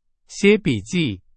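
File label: Chinese, example sentence; hsk2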